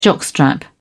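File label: "jockstrap", British English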